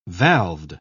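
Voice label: "valved", British English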